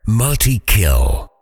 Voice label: "multi kill", fighter voice over; kenney voiceoverfighter